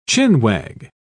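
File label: "chin-wag", English, British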